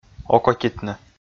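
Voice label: "окотитны", Komi, male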